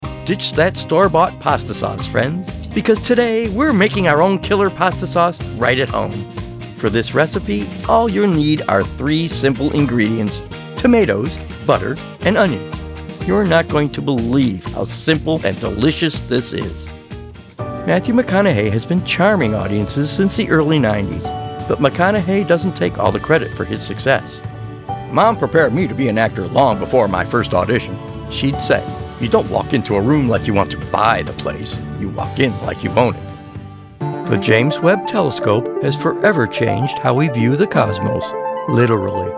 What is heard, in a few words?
Narration